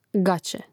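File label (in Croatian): "gaće", hrvatski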